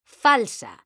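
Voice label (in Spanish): "falsa" Spanish, female; mekanta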